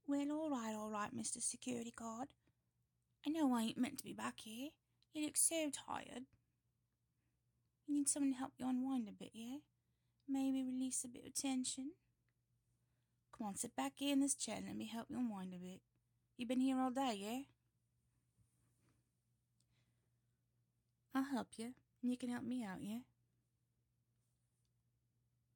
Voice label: Cockney accent